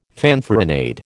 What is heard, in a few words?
"fanfaronade", American